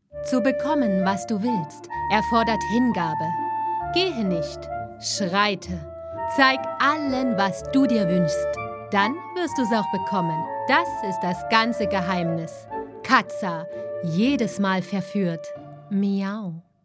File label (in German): Werbung